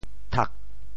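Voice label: "thak4", Teochew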